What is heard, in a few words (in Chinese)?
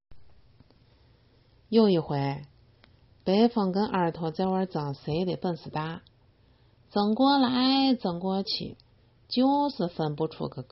西安话